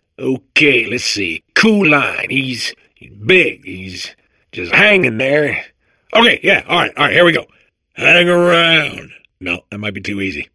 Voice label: babble; portal2